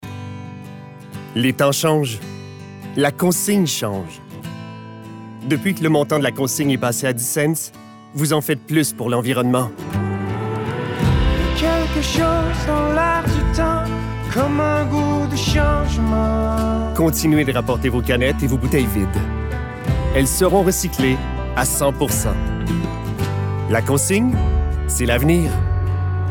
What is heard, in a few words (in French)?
Radio Broadcast; Consignaction